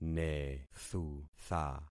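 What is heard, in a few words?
"nethutha", concatenated syllables; Affixing experiment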